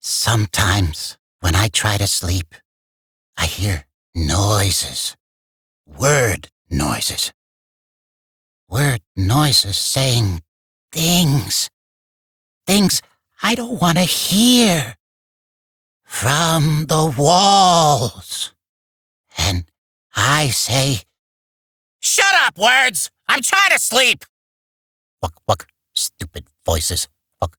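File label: Barks Bird, English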